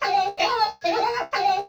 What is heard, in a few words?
murmur